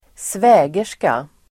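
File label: "svägerska", Swedish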